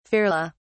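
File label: "ferly", English, British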